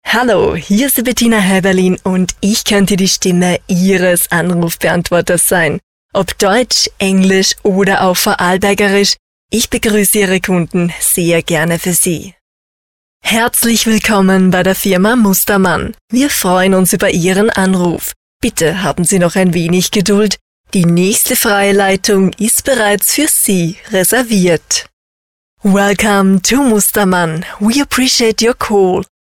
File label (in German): Telefonansagen